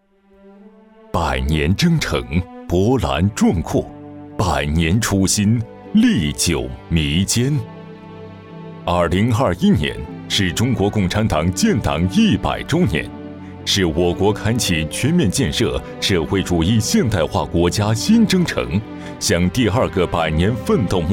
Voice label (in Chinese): 男 党政专题